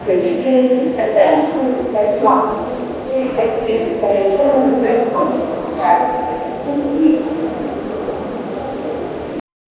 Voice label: sounds of people talking; announcements

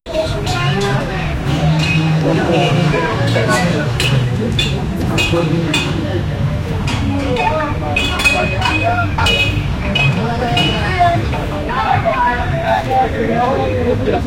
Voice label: nepali chattering busy st; busy street

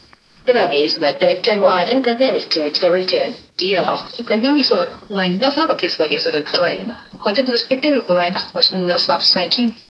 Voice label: flight attendant saying, airplane

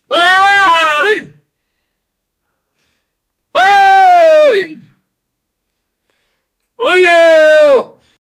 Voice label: someone shouting get him

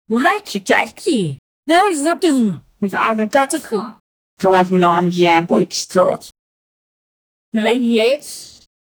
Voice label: male

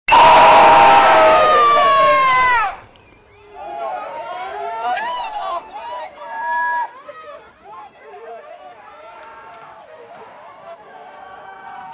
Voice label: police gathering a, authority

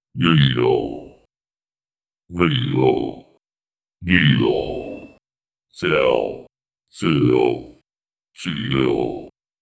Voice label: professional deep voice; confident

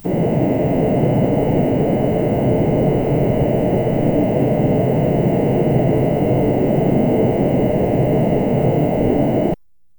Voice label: elegant confident luxury, assistant

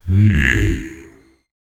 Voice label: deep cinematic english ma; game intro

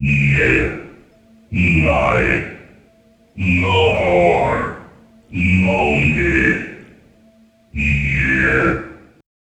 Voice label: voice generation middle a, villain

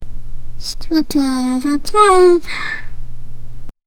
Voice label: a young boy softly whispe; advice

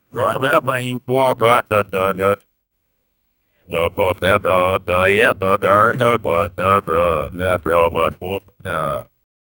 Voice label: male gibbering

